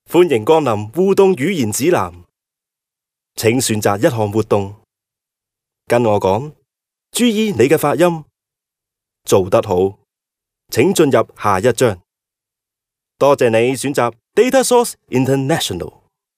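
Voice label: Cantonese male corporate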